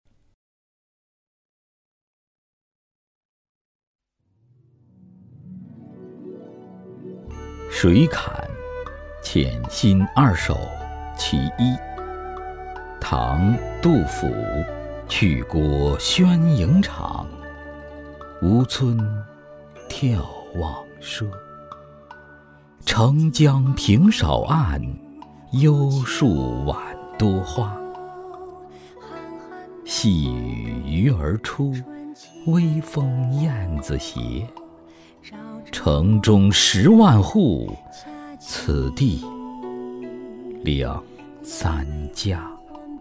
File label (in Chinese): 男国 诗词; 诗词类男声样音; 卷三诗词